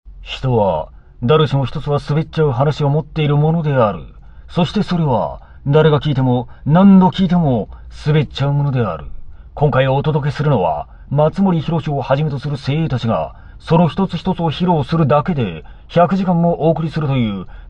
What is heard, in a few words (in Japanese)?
声真似, ドスのきいたあの声; 声真似サンプル３ ドスのきいたあの声で若本規夫さまイメージ; ※代表作としてナレーション、人志松本のすべらない話。アニメ、サザエさんのアナゴさん役。; ドラゴンボールZのセル役